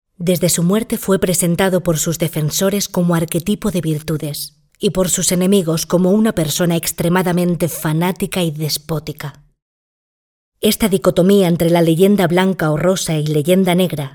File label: locutora spanish voiceover